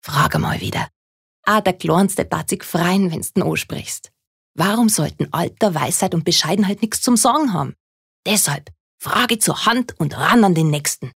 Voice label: Dialekt Bayerisch

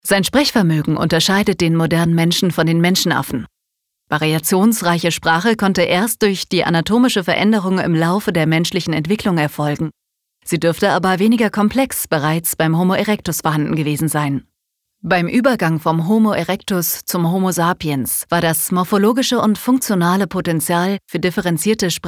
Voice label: Sprache Neutral

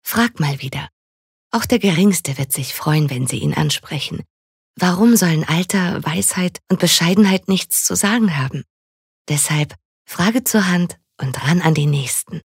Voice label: Werbung Sanft

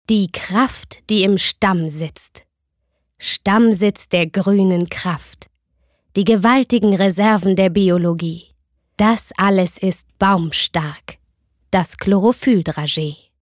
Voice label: Werbung Kraftvoll

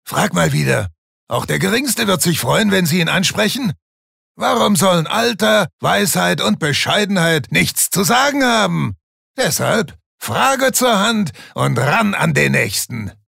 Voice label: Charge Rauh

